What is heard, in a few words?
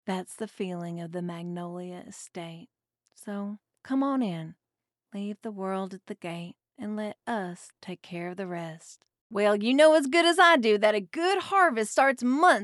Southern Accent English